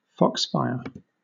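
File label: "foxfire", British English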